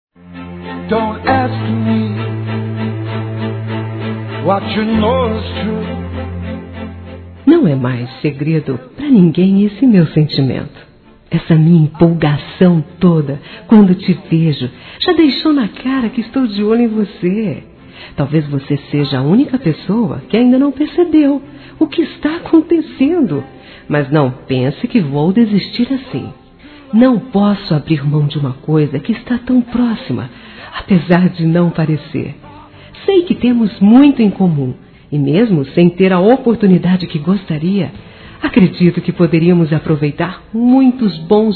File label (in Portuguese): Paquera Feminino; Telemensagens; Conquistas Cantadas paqueras; Telemensagens 2017